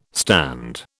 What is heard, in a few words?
"stand", British